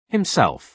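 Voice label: "himself", British English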